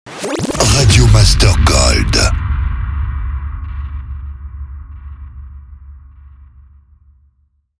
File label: Sweeper SLOW; Radio Master GOLD